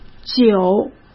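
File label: "酒", Mandarin Chinese; hsk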